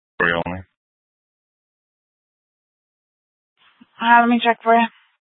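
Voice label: Police Department Dispatch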